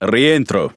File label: Speech Pilots Bomber Land, IT; World Of Warships